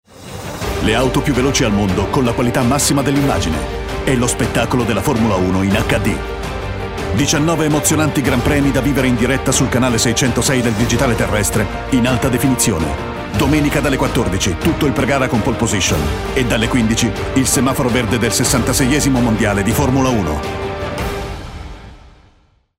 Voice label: male Italian tv comercial; ideasonora